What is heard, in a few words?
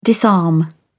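"disarm", British English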